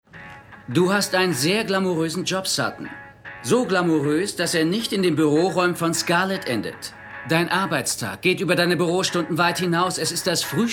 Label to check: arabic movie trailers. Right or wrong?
Wrong